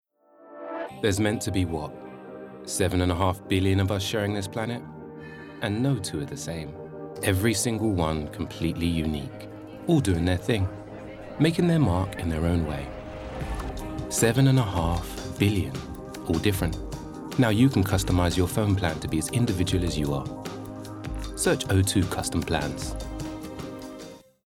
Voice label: Commercial; O2